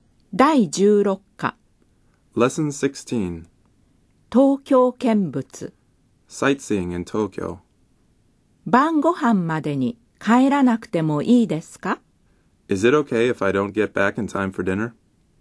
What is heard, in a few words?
Dialog